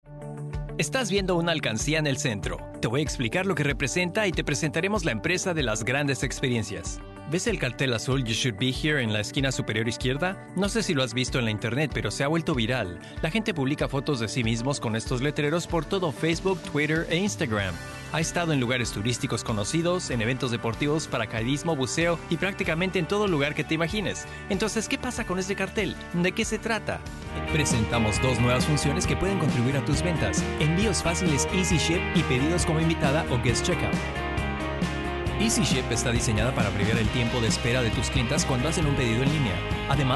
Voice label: Narr Span